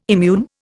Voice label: "immune", Indian